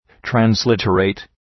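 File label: "transliterate", English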